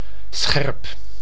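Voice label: "scherp", Dutch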